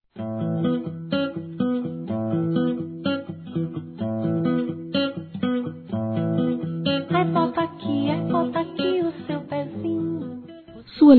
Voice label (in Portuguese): Afilhada Criança Feminino; Aniversário Infantil; Telemensagens